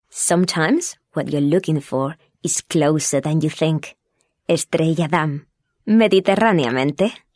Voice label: female spanish castilian english with spanish accent corporate